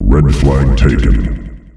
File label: Red Flag Taken, Announcer Voice, male; Unreal Tournament; ut2004